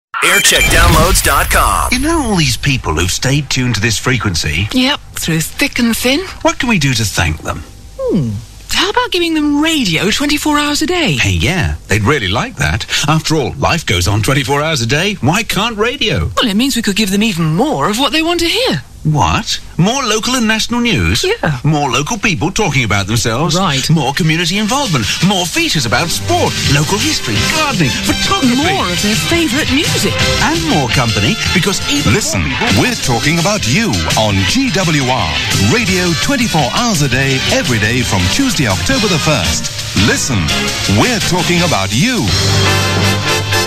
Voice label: Launch Promo; GWR